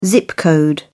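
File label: "zip code", British English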